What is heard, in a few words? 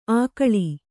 "ಆಕಳಿ", kannada